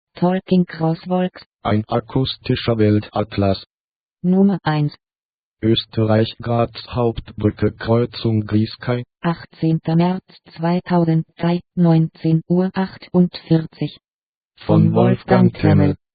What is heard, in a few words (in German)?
ansage; graz